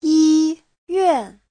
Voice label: "yi1yuan4", Chinese